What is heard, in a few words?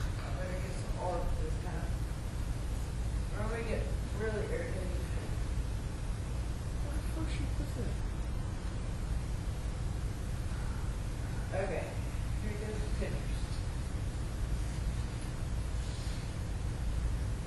Whisper; Case 017 Barter Theatre Stage; (Over Hear)